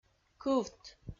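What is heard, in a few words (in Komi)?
"кывт", Komi, female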